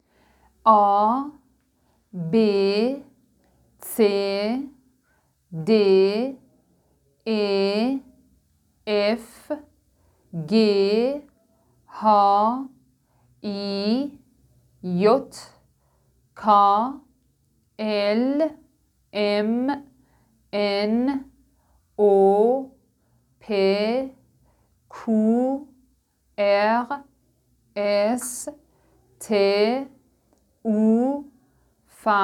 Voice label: german alphabet